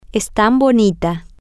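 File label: she is so pretty, spanish, female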